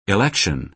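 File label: "election", American English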